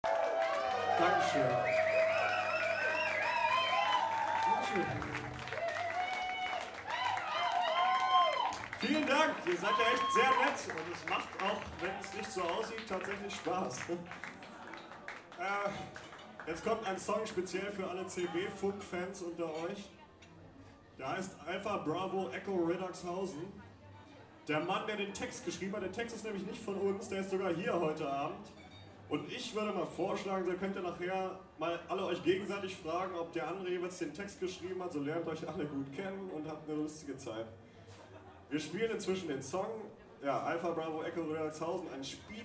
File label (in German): ansage